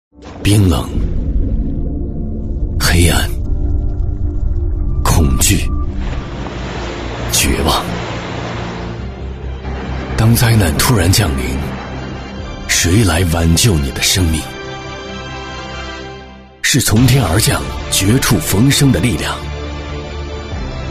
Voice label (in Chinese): 男319 励志广告片,高端品质 大气浑厚; 应急救援广告